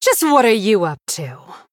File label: Female Blood Elf Greetings